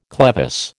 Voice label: "clevis", American